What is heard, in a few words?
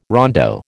"rondo", American